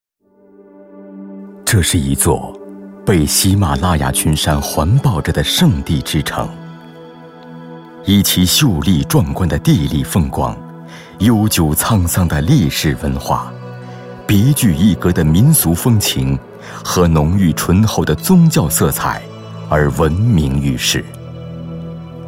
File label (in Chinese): 男 专题 历史神秘厚重感; 拉萨